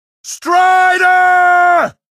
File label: "strider", male; npc; Garry's Mod; hl2